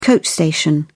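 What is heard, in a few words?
"coach station", English, British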